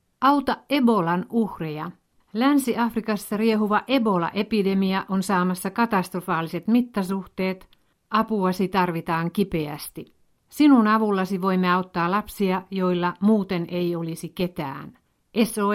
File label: female finnish voice over upbeat